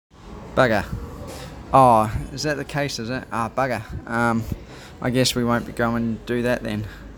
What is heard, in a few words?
"Bugger", New Zealand; common New Zealand slang words and phrases